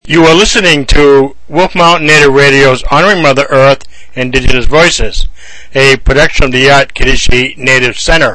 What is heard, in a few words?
RADIO VOICE TEST; WOLF MTN